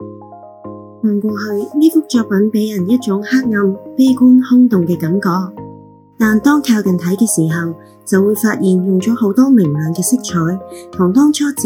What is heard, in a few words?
Cantonese